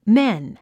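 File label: "men", English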